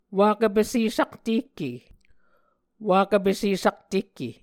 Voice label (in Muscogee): "wakv pese saktehke", Muscogee